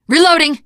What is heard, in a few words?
reloading, teen girl; Left 4 Dead 2